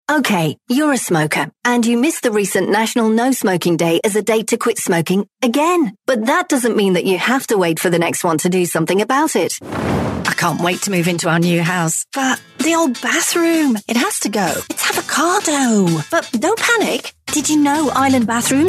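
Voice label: natural mixed audio showreel, Natural Female Voice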